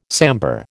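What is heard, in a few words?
"sambar", American English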